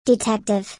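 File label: "detective", English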